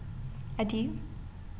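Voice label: "adieu", American, female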